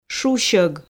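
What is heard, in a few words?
"шусьог", Komi, female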